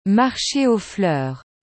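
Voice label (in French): "Marche aux fleurs", French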